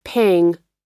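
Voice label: "paying", American English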